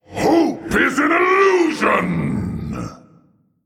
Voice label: Male Old God Trigger

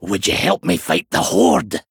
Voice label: Male Dwarf mirror greetings

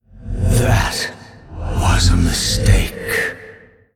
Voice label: Male Old God

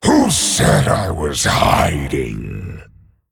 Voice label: Male Dragon Mirror Greetings

